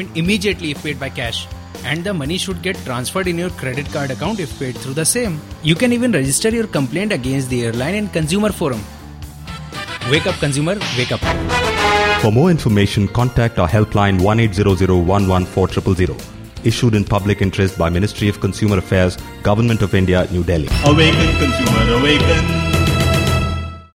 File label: Angry boss English